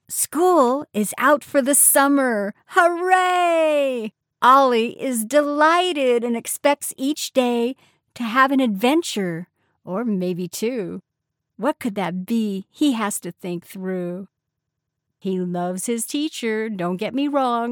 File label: Children's Audio Book; Olli the Octopus